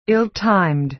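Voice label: "ill-timed", American English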